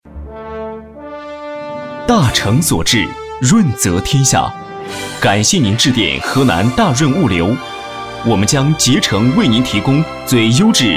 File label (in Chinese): 男 物流公司（大气）